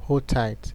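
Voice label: "hold tight", Nigerian Pidgin, male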